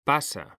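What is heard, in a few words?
"pasa", Spanish, male; mekanta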